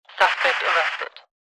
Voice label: police female